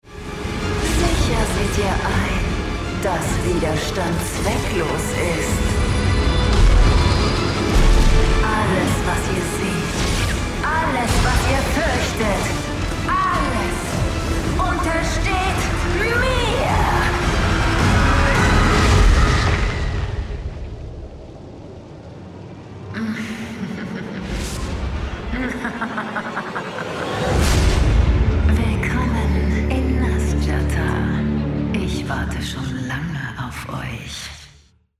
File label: German video games